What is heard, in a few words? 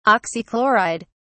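"oxychloride", British English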